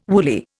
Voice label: "woolly", Indian